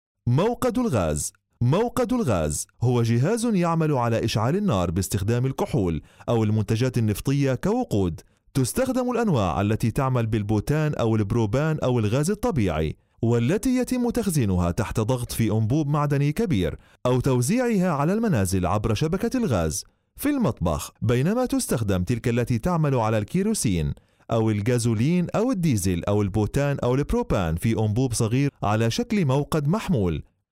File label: arabic